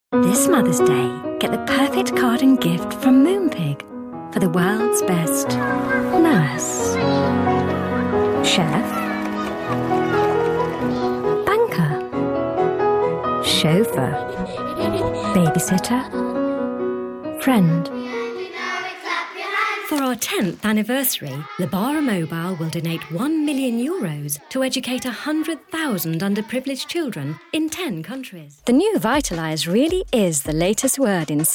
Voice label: Commercial; VOICDEFOX